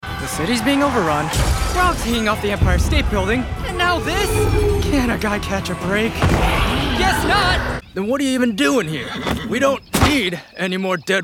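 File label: Video Game, voice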